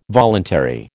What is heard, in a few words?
"voluntary", American